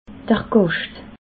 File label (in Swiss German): "gascht", alsace